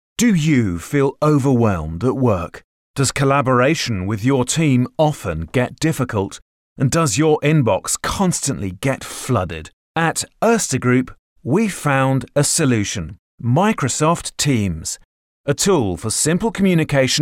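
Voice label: Male English IVR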